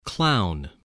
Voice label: "clown", voice